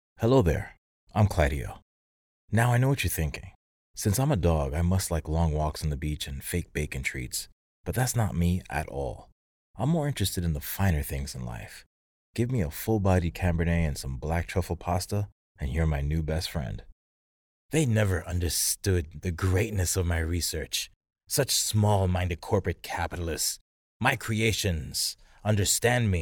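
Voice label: Character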